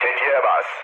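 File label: Turkish attack position, mood low, speech; War Thunder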